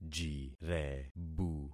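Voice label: "jirebu", concatenated syllables; Affixing experiment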